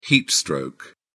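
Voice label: "heatstroke", British English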